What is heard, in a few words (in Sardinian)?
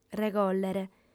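"regòllere", female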